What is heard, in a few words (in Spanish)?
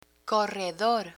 "corredor", Spanish